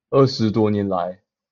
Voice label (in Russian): Голоса, Тайваньский